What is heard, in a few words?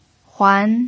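"huan", second tone, Mandarin Chinese pinyin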